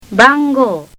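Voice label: "bangou", Japanese; nakama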